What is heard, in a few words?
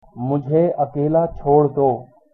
"leave me alone", Hindi